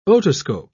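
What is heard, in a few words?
"otoscope", British English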